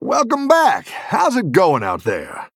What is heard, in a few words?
Male Human General